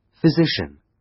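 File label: "physician", British English; Time, history; Thời gian, lịch sử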